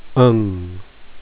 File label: ung, phonics